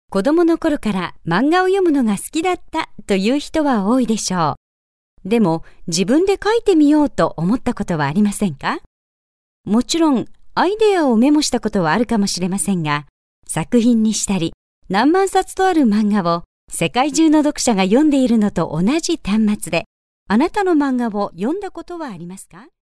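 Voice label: Japanese, female, narration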